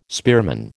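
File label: "spearman", American English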